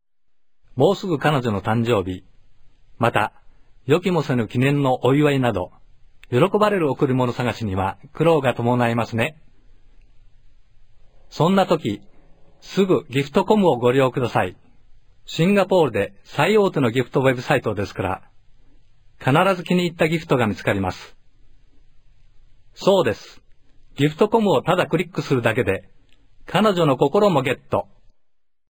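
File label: japanese male voice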